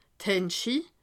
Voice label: "handschi", alsace

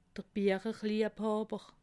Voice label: "biecherliebhawer", alsace

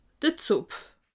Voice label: "zopf", alsace

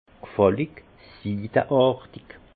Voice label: "gfallig", alsace; vin; lisse soyeux

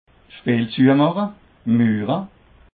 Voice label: "speel zu mache", Alsace

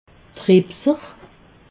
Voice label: "repser", alsace

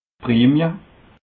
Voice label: "prim", alsace

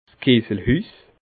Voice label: "kesselhuss", alsace

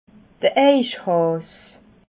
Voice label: "eichhaas", alsace

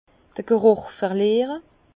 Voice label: "gruch", Bas Rhin; vin; anosmie

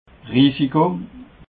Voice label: "risiko", alsace

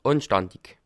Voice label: "anstandig", alsace

